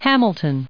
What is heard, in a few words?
"hamilton", us